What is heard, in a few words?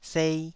"sei2", taiwanese syllable